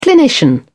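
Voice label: "clinician", British English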